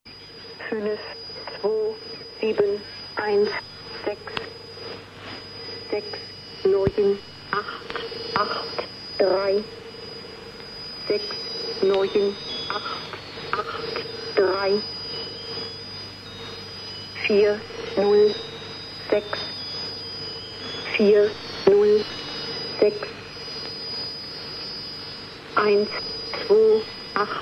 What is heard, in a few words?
german lady; irdial